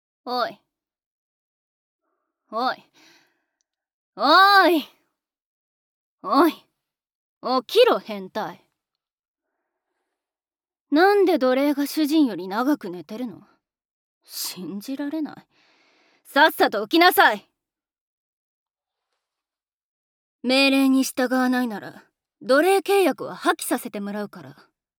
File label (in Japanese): 目覚ましボイス おはよう